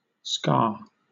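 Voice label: "ska" English, British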